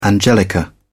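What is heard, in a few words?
"angelica", British English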